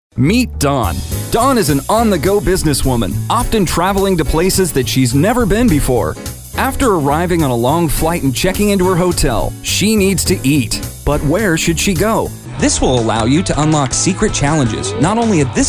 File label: explainer videos